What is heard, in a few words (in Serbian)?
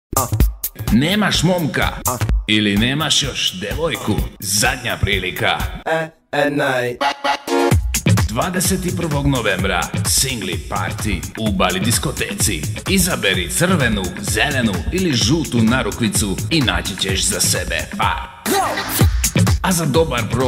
Bali reklama; Singli Party; reklame za diskoteku u Senti